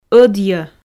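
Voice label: "ӧдйӧ", Komi, female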